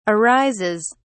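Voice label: "arises", British English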